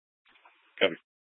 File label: Sheriff Dispatch; Mesa County